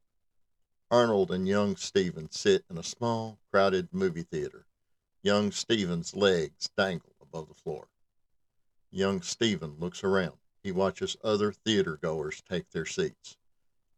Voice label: Audition Sides for NARRATOR Voice Sample